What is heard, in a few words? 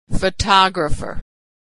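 "photographer", American English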